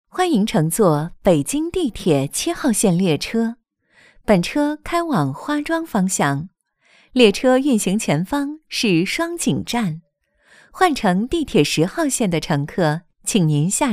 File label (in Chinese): 多媒体 广播提示 地铁报站 北京地铁